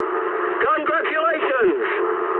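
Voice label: English player killed enemy mood high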